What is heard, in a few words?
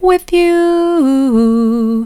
with you soft; vocal